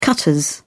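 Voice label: "cutters", British English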